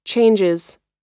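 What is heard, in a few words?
"changes", US English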